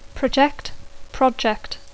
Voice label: "project", English; verb tr conj projectar

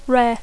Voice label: "rare", English

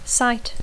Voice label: "cite", English; (formal) citar; adduir; invocar

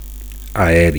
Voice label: "aeri", Catalan; air; aerial

